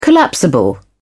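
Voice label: "collapsible", British English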